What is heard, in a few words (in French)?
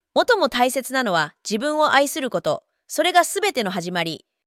voix ia en japonais